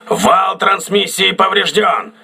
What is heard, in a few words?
voice message transmission damaged, crew ships russian